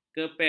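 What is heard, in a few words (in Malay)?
"kepet", Malay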